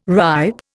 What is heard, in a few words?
"ripe", Indian